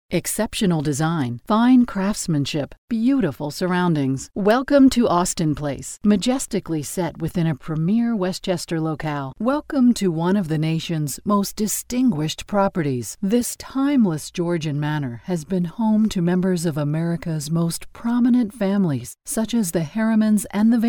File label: Narration